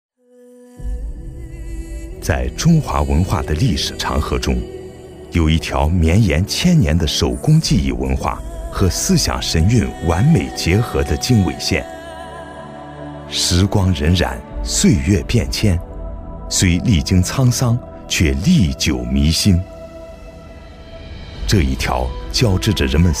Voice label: 男, 纪录片, 紫砂

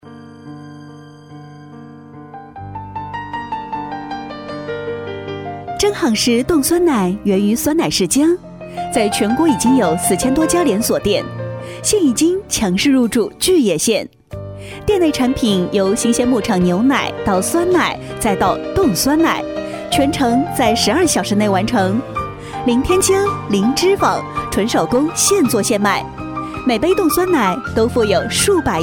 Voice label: 女78号 广告 酸奶广告甜美大气, 国语女声配音 年轻

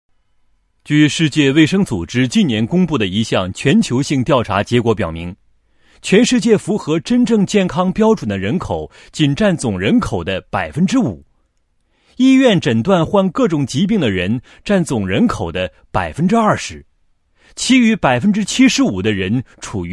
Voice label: 男 解说; 亚健康 四环大健康产业

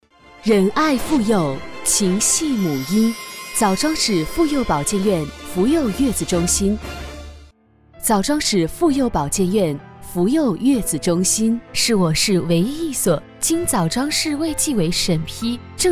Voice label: 女, 专题, 企业专题

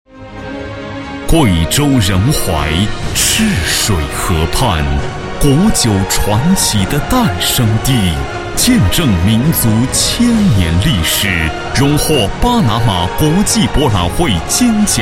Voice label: 男3-广告-国酒 恢弘, 国语男声配音

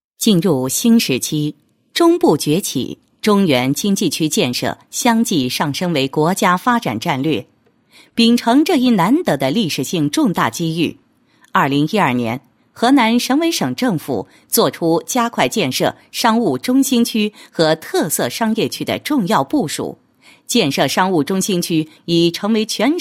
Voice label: 女 专题 政论性专题, 国语女声配音; 全能配音

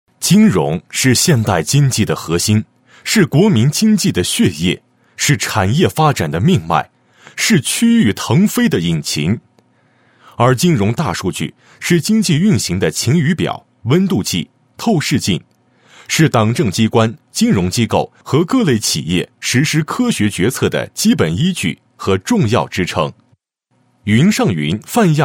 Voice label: 男 汇报 金融大数据中心建设基本情况汇报, 配音案例; 泛亚

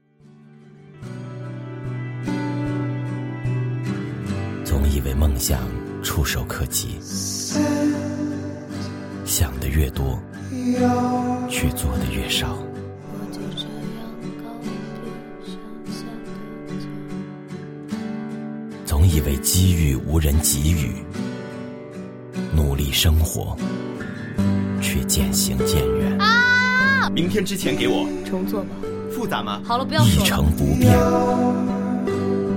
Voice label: 男 广告 高端磁性, 国语男声配音; JOIN US

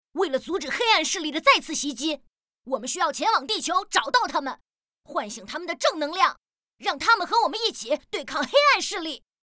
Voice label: 游戏 正义男童

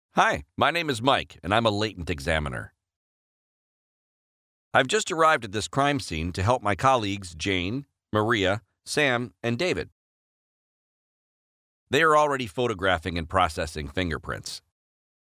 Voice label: 外籍英男 手机指纹APP 自然轻松

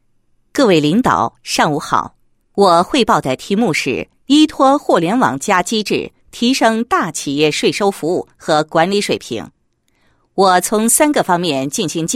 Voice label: 女 课件, 配音; 依托互联网加机制PPT

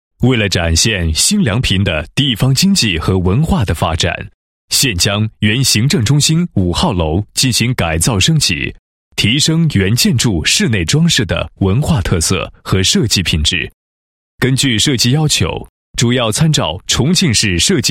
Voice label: 男 工程; 梁平县行政中心5号楼内装室内设计方案

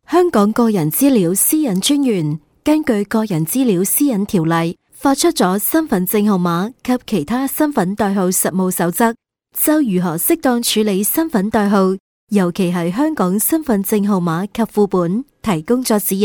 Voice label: 粤女 课件 粤语旁白

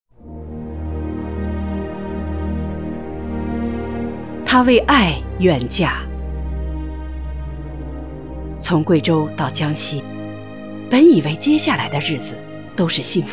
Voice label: 深情讲述

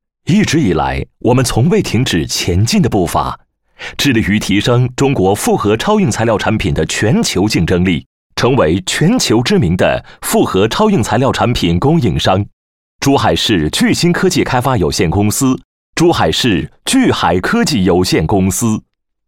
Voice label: 男 企业宣传片 宣传片配音; 巨海公司

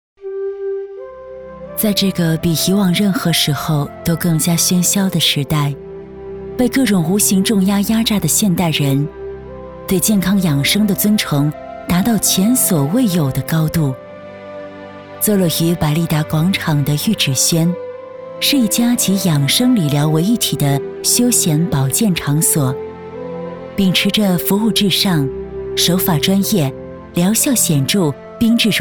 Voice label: 广告, 自然朴素; 御指轩

